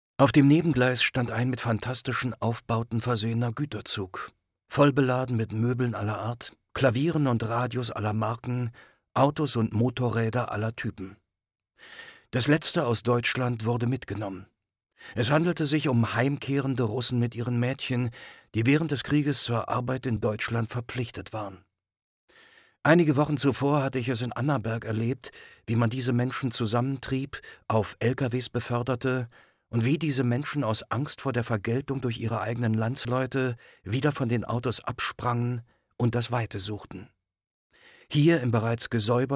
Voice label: narration; nachkrieg stalinismus sbz

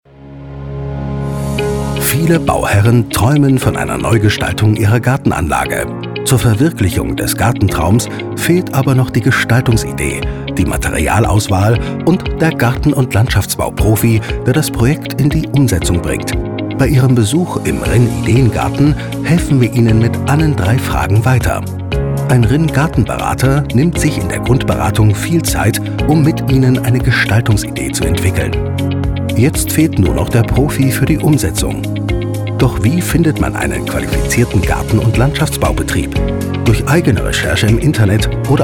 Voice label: sprecher voice erklaerfilm

